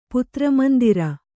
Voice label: "ಪುತ್ರಮಂದಿರ", Kannada